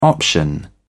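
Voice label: "option", British English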